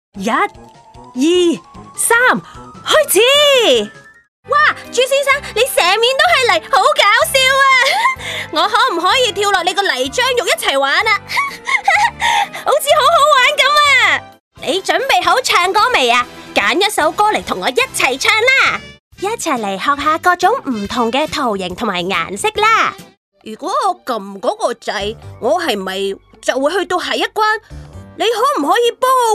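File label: Cantonese, Toys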